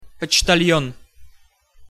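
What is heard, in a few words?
"poctal on", Russian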